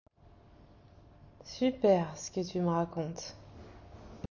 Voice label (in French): sujet conversation ironie